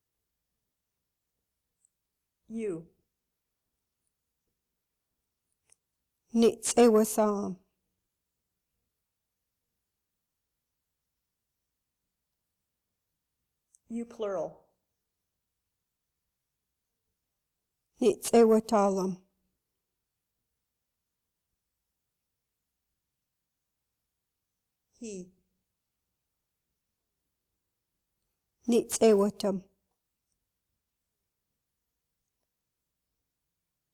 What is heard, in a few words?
Substitution Drill